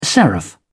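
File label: "seraph", British English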